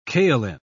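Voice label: "kaoline", British English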